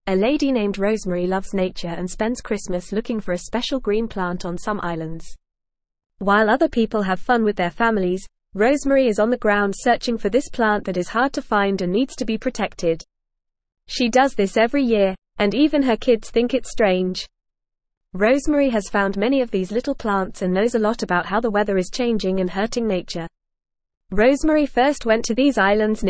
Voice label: English Newsroom Lower Intermediate FAST Reading; Rosemarys Love for Plants and Helping the Isles

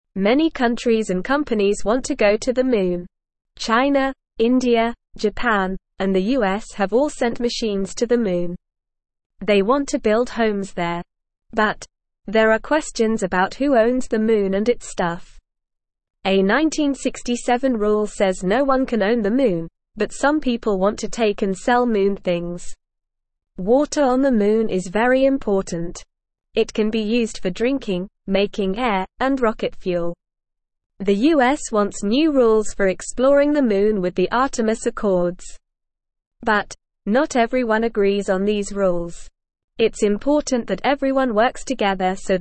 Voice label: English Beginner NORMAL Reading; Who Owns the Moon

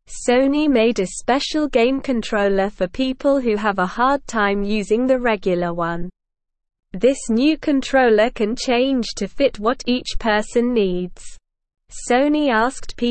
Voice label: English Beginner SLOW Reading; Sonys Special Game Controller for Everyone

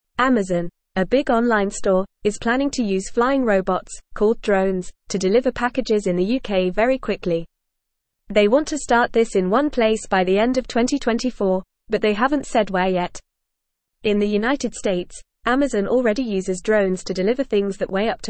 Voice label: English Lower Intermediate FAST Reading; Amazon to Use Flying Robots for Fast UK Deliveries

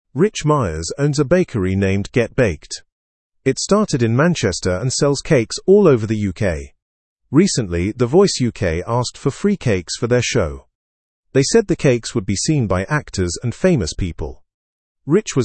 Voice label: english through news lower intermediate; bakery angered by the voice s freebie request

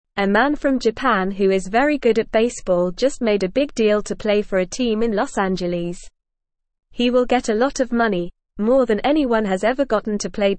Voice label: English Beginner NORMAL Reading; Japanese Baseball Star Signs Big Deal with Los Angeles; English Newsroom

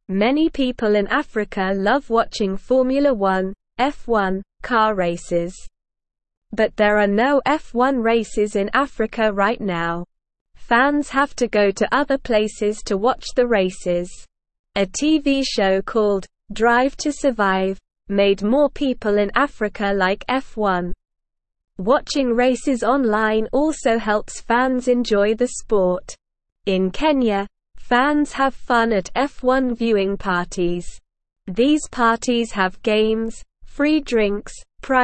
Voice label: English SLOW Reading; Formula 1 Racing Africa Wants a Race

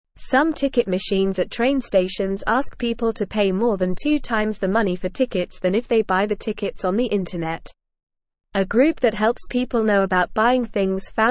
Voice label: Beginner NORMAL Reading, English

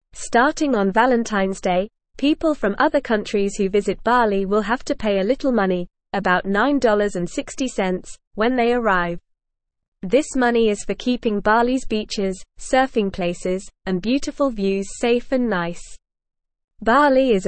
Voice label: English Newsroom Beginner NORMAL Reading; New Money Rule for Visitors to Bali

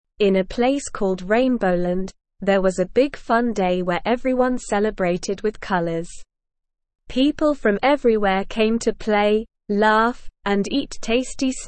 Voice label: ESL Short Stories for Kids Beginner SLOW Reading; The Carnival of Colors

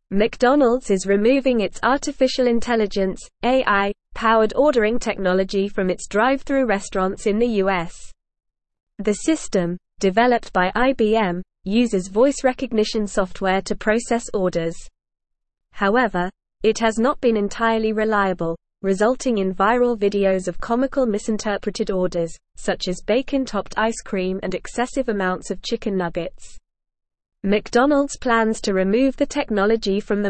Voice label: English Newsroom Advanced NORMAL Reading; McDonalds Removes AI Ordering Tech After Comical Mishaps

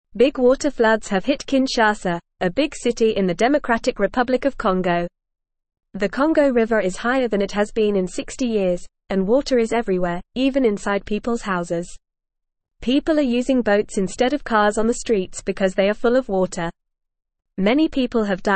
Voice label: English Lower Intermediate FAST Reading; English Newsroom

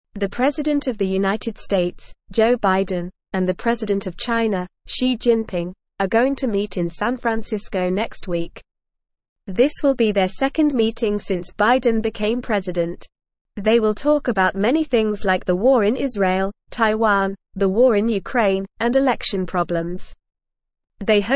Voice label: English Lower Intermediate NORMAL Reading; Biden and Xi Jinping to Meet in San Francisco; English Newsroom

